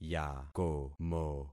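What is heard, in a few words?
"yakomo", concatenated syllables; Affixing experiment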